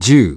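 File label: "ten", English; iOS 4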